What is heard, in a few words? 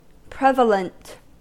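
"prevalent", US English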